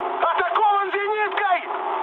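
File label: Russian damaged aa mood high, speech; War Thunder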